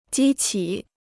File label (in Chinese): "激起", Chinese